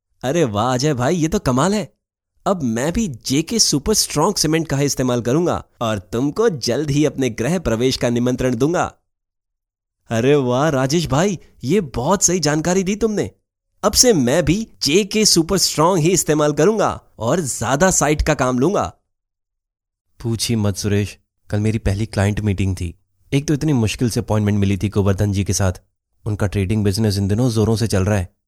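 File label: Hinglish India, male, voice over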